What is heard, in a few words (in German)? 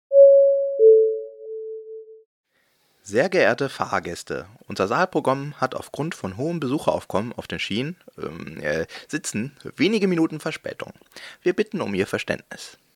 durchsage